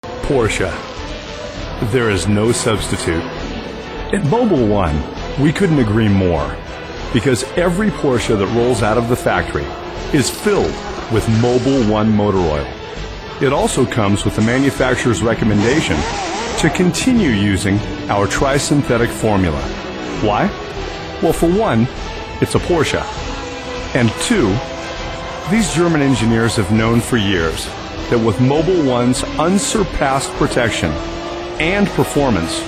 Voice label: audio commercial